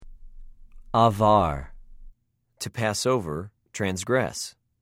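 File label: "עָבַר", Hebrew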